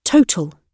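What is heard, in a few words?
"total", British English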